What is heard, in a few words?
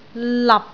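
"lap6", Cantonese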